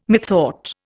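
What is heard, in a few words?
"methought", English, Indian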